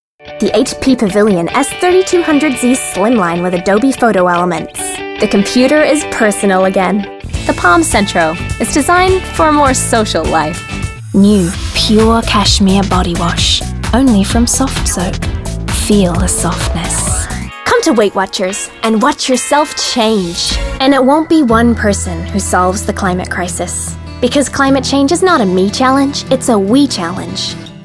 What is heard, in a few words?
Commercial